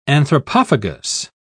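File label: "anthropophagous", English, British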